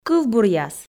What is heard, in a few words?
"кывбуръяс", Komi